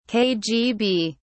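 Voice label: "kgb", British English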